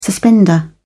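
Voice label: "suspender", British English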